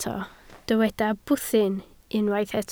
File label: "bwthyn", Welsh